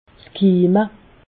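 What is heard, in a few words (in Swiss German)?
"kimme", Haut Rhin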